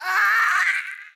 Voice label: Female zombie; Project Zomboid v41.73